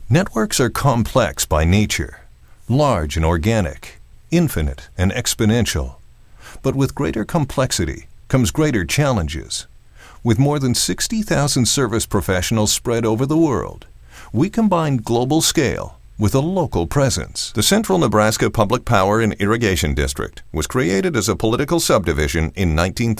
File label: narr, male voice talent